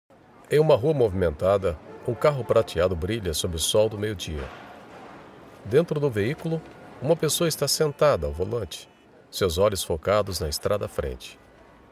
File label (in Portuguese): audiodescricao